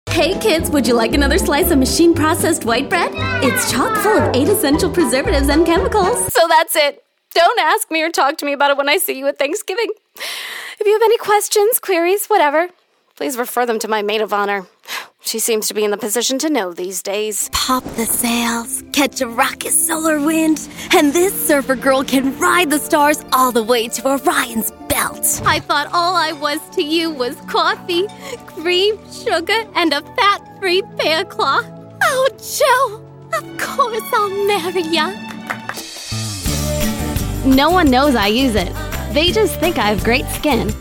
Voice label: american